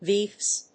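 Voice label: "VCF", English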